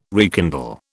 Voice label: "rekindle", British